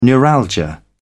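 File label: "neuralgia", British English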